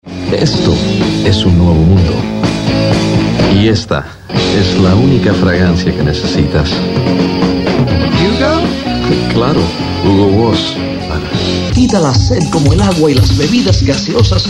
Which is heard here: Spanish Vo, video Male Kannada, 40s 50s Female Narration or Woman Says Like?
Spanish Vo